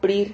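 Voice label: "prir", Spanish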